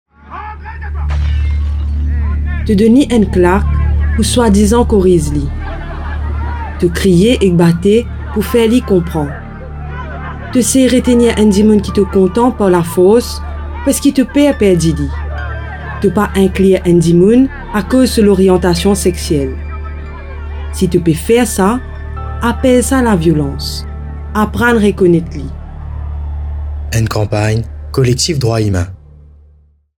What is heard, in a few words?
radio